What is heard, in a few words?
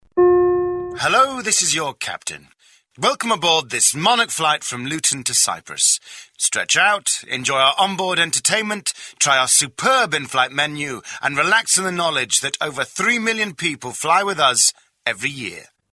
Commercial; Monarch; Vocal Point